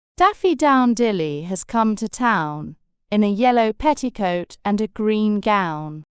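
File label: Daffy Down Dilly, female British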